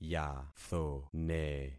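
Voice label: "yathone", concatenated syllables; Affixing experiment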